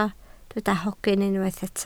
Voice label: "hogyn", Welsh

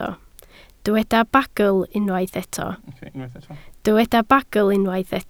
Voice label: "bagl", Welsh

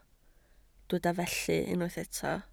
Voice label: "felly", Welsh

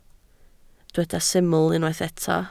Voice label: "syml", Welsh

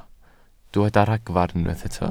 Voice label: "ragfarn", Welsh

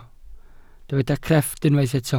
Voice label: "crefft", welsh

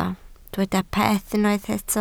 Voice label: "peth", Welsh

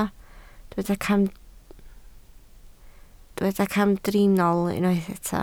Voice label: "camdriniol", Welsh

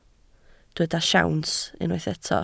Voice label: "siawns", Welsh

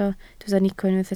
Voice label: "nico", Welsh

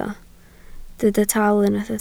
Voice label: "taal", Welsh

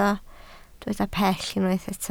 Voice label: "pell", Welsh

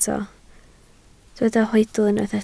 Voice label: "hoedl", Welsh

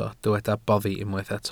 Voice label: "boddi", Welsh

